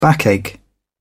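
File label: "backache", British English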